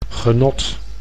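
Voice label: "genot", Dutch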